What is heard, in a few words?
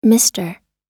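"mister", American English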